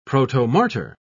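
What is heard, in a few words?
"protomartyr", British English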